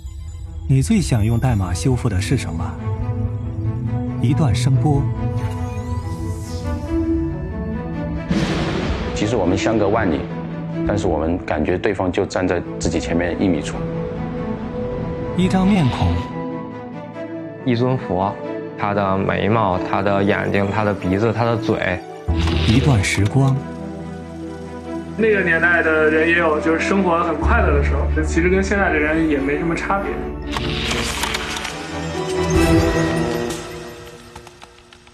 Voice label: 标准音开篇; 码农的异想世界

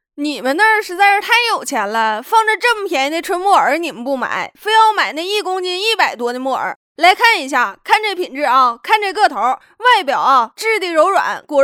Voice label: 东北版

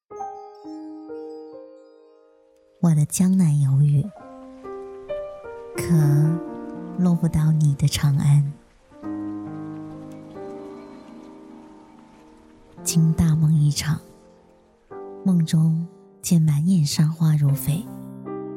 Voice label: 抒情自然

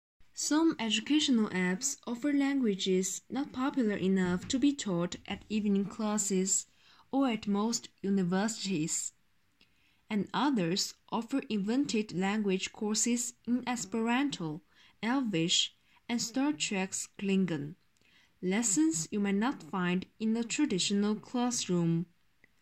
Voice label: 英语 文段练习; BBC

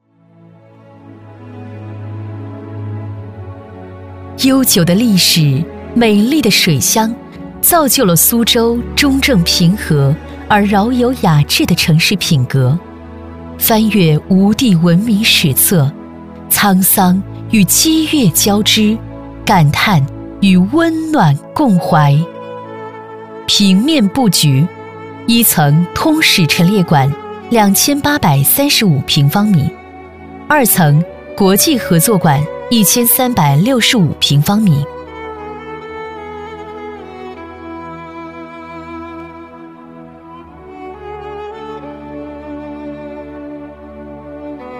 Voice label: 大气 成熟 样音; 苏州

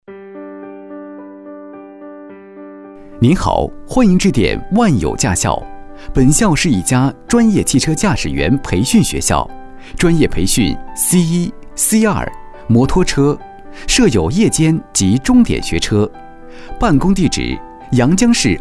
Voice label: 商配彩铃样音

